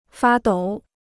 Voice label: "发抖", Chinese

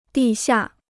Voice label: "地下", Chinese

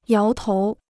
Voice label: "摇头", Chinese

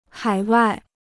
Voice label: "海外", Chinese

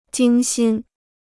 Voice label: "精心", Chinese

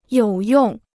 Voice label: "有用", Chinese

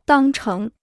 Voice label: "当成", Chinese

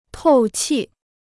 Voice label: "透气", Chinese

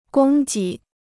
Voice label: "供给", Chinese

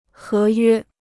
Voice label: "合约", Chinese

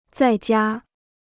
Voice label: "在家", Chinese

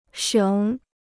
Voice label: "省", Chinese

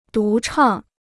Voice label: "独唱", Chinese; dú chàng; (in singing) solo; to solo

